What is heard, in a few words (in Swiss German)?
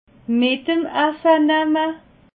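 "mitem", Alsatian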